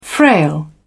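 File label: "frail", English, British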